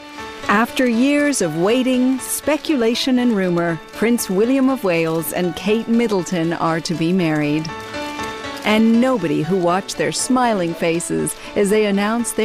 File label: Documentary, female